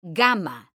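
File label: "gama", Spanish, female; mekanta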